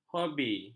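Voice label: "hobi", Malay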